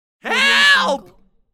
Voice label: male desperate call for help